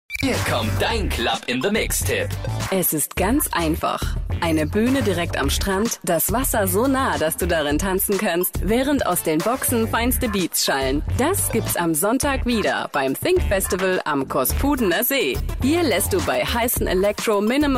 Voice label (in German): radio funk spot; nrj